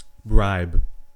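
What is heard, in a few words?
"bribe", US English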